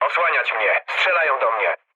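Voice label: aircraft damaged, mood low, Polish speech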